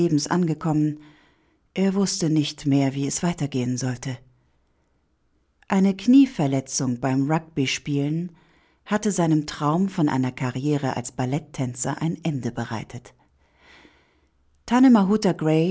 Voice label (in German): Maori, Doku, German